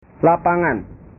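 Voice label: "lapangan", Indonesian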